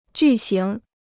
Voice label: "巨型", Chinese

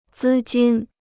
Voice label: "资金", Chinese

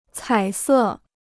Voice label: "彩色", Chinese

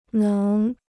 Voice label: "能", Chinese

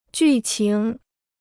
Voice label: "剧情", Chinese

